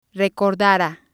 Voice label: "recordara", spanish

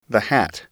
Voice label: "hat", English

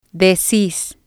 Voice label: "decis", Spanish

